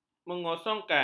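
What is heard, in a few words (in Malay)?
"mengosongkan", Malay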